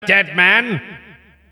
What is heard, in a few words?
failure, announcer; tf2cciv; open fortress